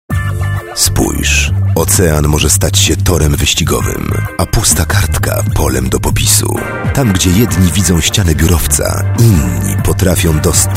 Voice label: Male VO Commercial